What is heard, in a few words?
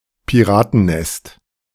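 "Piratennest", German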